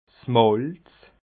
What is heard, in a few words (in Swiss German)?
"malz", alsace